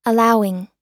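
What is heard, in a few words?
"allowing", US English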